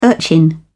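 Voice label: "urchin", British English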